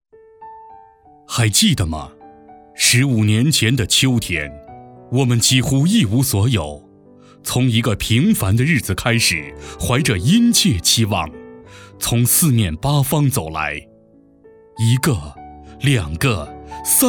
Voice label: 男国 专题 企业 磁性; 华熙生物

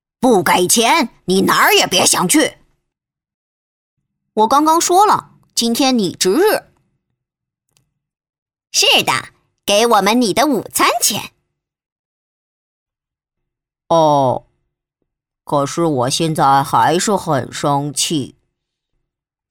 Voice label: 动画 角色 多角色男孩们

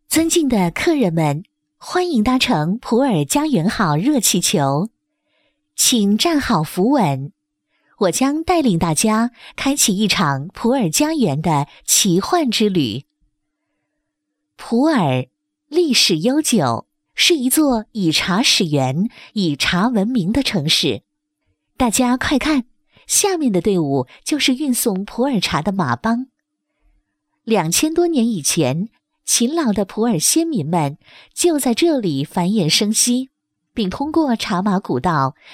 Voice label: 语音导游 友情提示

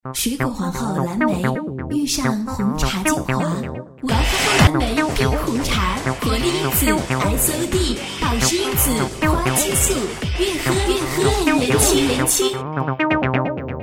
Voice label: 广告 品牌形象 蓝莓冰红茶 活力, 女国; 哇哈哈

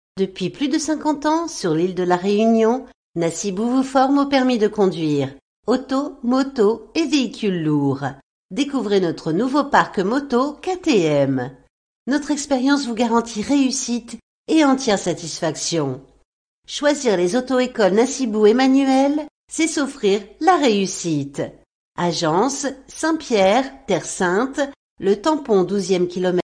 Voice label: 法语女, 外语, 小语种, 沉稳

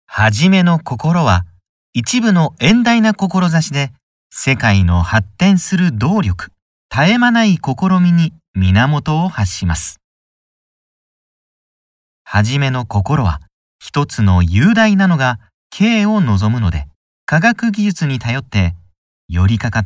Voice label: 日语男 外语 干声; 小语种

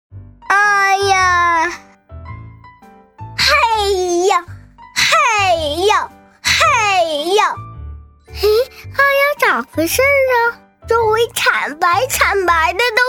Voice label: 真童音的配音《可普通话可东北话》

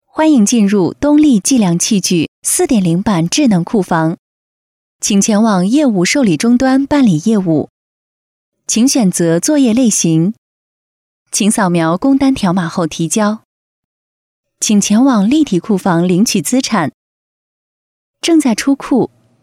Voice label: 女国 多媒体 广播提示 提示音

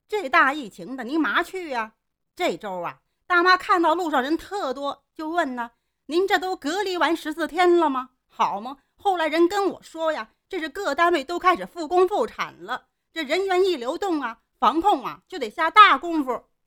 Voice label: 北京方言大妈; 角色

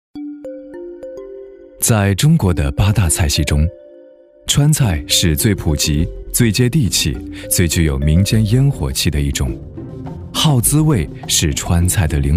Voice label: 男国 纪录片 舌尖 川菜

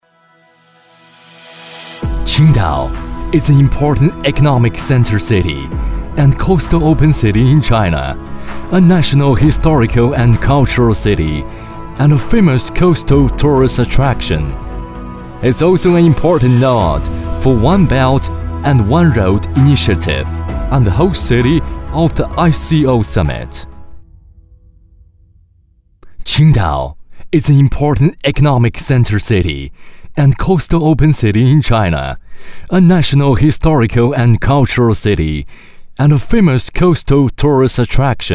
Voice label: 男英 宣传片 招商 沉稳; 青岛招商; 英语

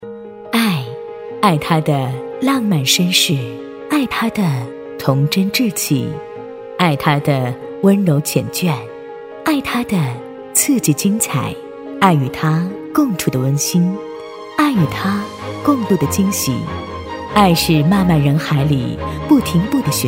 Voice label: 公益 亲切; 广告

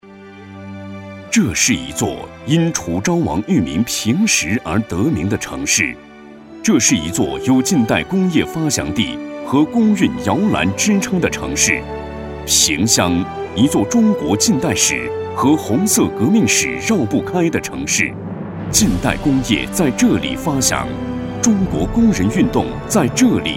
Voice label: 宣传片, 城市, 形象片, 成熟; 萍乡形象片

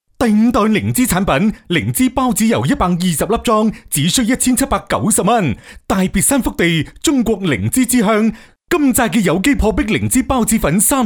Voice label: 男粤 广告 促销, 粤语; 灵芝

